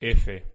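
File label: "f", Spanish pronunciation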